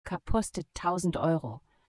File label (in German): minigame touch easy, voice de; logistik